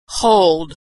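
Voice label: "hold", American English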